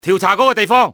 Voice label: investigate area, cantonese male; XBOX; enemy